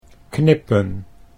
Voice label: "knippen", Dutch